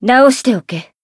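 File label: Voice heal, Japanese; hiina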